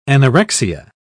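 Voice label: "anorexia", British English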